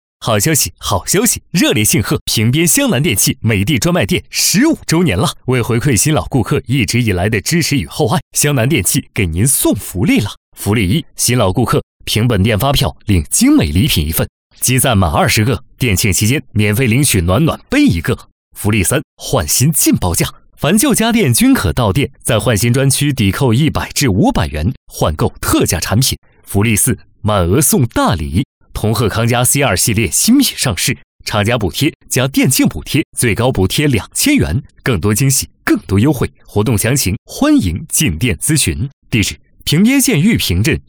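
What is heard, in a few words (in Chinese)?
男 促销广告 电器专卖店; 屏边湘南电器美的专卖店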